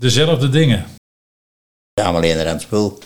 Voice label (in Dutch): Eenderand spul, dialect Nieuw lekkerlands; Dezelfde dingen